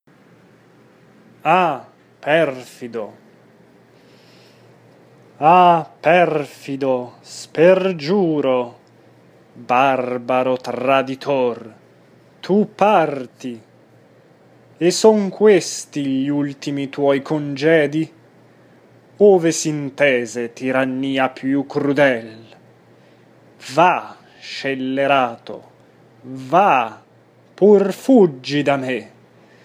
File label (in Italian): Ah perfido espressivo; Beethoven